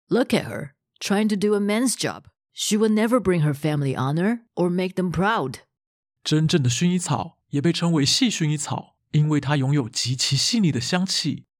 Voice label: Male Voice